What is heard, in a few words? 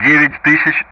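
Speech Sound Russian; DCS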